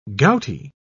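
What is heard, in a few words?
"gouty", English, British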